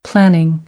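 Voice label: "planning", English, female; noun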